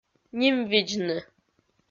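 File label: "нимвидзны", Komi-Zyrian, female; kpv audio dict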